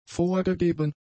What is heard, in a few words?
"vorgegeben", German; adjectives